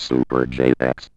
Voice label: ROBO VOX; Masterbits - Tekkno Trax